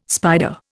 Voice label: "spider", Indian